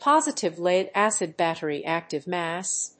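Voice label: "positive lead-acid battery active mass", English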